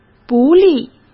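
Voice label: "不利", Mandarin Chinese; chinese flashcards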